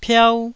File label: "piau1", taiwanese